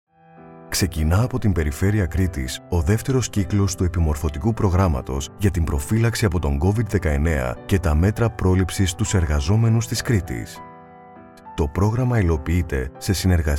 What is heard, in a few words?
radio spot; perifereia